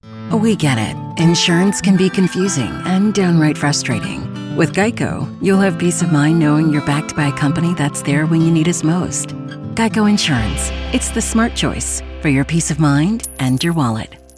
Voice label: Explainer Videos, Grounded Warm Relatable, Female Voice; GEICO